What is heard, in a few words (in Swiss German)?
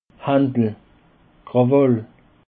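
"handel", Alsatian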